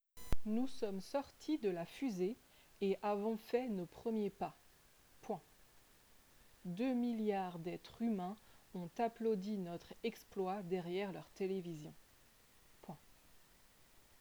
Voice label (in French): dictee